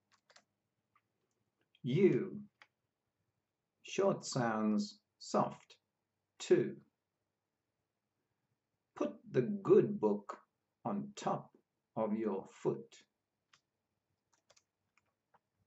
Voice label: U short sounds soft, pronunciation of australian english